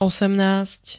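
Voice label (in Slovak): "osemnásť", Slovak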